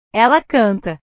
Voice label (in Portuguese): "ela canta", portugues; cantar; portugues/verbos